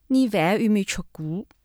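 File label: hangzhou, Chinese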